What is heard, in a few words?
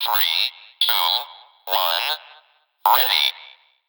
Anesthesia Countdown; Plastic Surgery